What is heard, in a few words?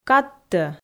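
"кадтӧ", Komi, female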